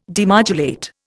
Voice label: "demodulate", Indian